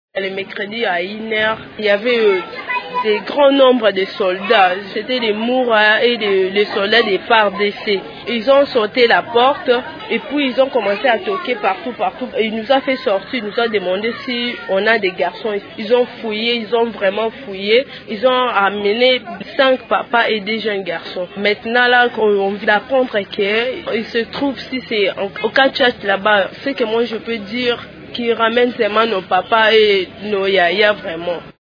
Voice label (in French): temoignage fille; Kinshasa; La Voix des Sans Voix dénonce l’enlèvement d’une centaine de personnes